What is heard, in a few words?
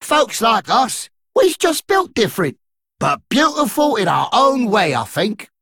Male Dredger; Patchwerk